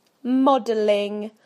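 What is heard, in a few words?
"modelling", British English; PictureDictionary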